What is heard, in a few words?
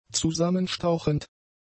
"zusammenstauchend", German; adjectives